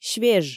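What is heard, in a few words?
"свеж", Komi, female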